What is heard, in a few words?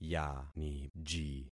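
"yaniji", concatenated syllables; Affixing experiment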